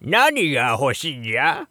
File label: shop oldman, voice